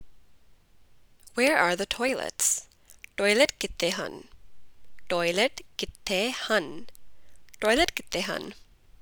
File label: Punjabi Where are the toilets